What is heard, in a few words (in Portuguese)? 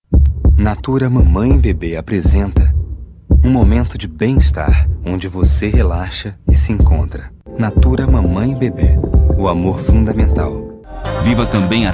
Masculino, Português - Brasileiro; Masculino (51 anos); Sao Paulo, Sao Paulo - BR; PORTFÓLIO de alguns trabalhos de LOCUÇÃO; Voz Padrão - Grave